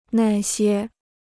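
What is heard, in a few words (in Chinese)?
"那些" Chinese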